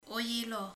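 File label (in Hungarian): "szív", Hungarian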